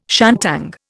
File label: "shantung", Indian